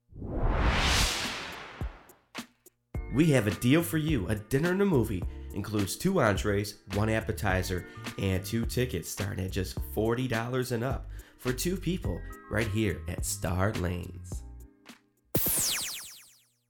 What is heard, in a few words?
Dinner and Movie Commercial